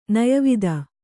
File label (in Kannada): "ನಯವಿದೆ", Kannada